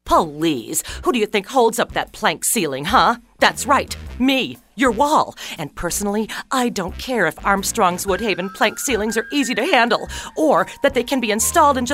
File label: high energy